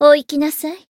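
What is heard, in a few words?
finish, Japanese; toova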